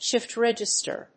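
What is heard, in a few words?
"shift register", English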